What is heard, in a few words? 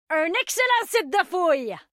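French; 探奇（守望先锋2）; 终极技能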